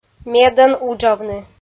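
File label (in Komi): "медӧн уджавны", Komi, female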